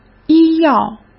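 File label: "医药", Mandarin Chinese; chinese-flashcards; hsk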